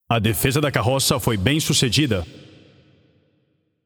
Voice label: Announcer PTBR Match Payload Enemy Failed

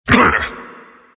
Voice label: pain; Earth Spirit

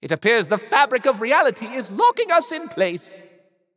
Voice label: Announcer Global Game Pausing; Jester

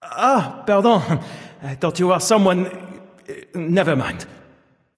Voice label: Male Taunt Directed; Valentine; Khepri; Ne Zha; Smite

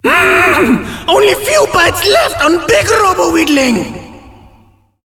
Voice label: turret low

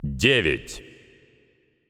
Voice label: Announcer Russian Count

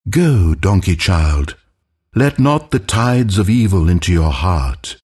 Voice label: announcer purchase courier; Stanley Parable

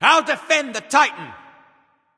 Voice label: Voice Self Defend; Curse Apollo

